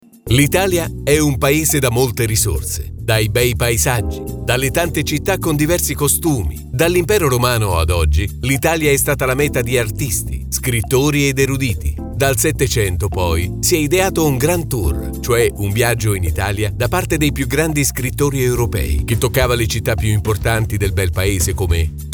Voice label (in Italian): narrazione con musica